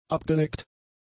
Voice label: "abgeleckt", German; adjectives

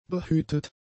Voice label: "behütet", German; adjectives

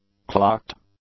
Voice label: "clocked", English; adjectives

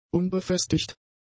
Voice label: "unbefestigt", German; adjectives

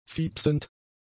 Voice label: "fiepsend", German; adjectives

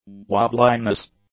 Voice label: "wobbliness", English

